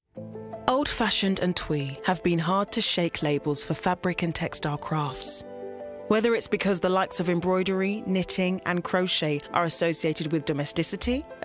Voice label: Narrative